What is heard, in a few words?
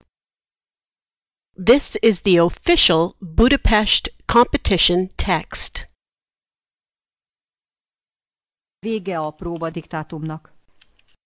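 Practice Hungarian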